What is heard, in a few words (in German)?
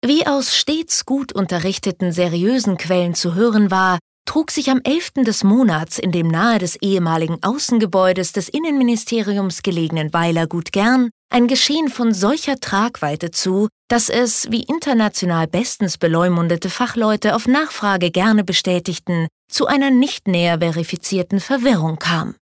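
commentary, Trocken, Neutral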